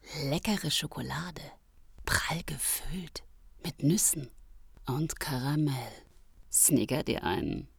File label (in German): Werbung; Sniggers; Food